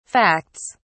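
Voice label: "facts", British English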